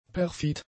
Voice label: "perfid", German; adjectives

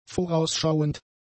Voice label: "vorausschauend", German; adjectives

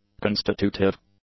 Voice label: "constitutive", English; adjectives

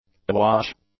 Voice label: "awash", English; adjectives

